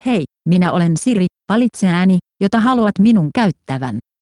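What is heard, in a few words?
Finnish, Finland; iOS 26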